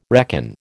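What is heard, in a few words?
"reckon", American English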